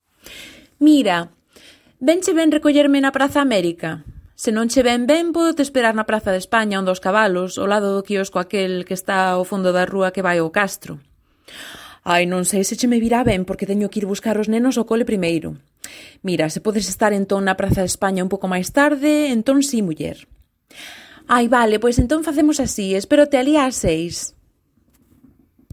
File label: female spanish castilian and galician galician conversation; Devine Voices